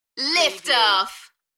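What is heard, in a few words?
British female Lift off Enthusiastic; beanstalk audio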